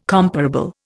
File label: "comparable", Indian